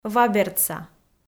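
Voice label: "вабердса", Komi, female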